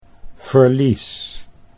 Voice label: "verlies", Dutch